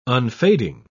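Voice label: "unfading", English, uk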